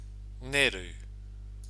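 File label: "ngeru", Māori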